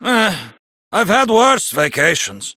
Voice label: Villagers Ostron Male Older en